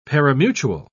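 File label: "parimutuel", British English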